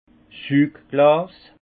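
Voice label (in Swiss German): "sugglas", Haut Rhin; vin; pipette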